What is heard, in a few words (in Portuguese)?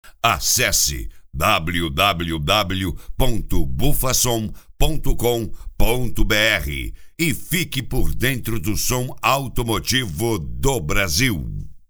vinhetas locutor; Bufa Som